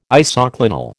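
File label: "isoclinal", American English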